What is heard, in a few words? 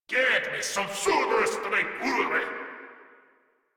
Cover Me, Grineer Male; Warframe